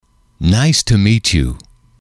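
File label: "nice to meet you", English, male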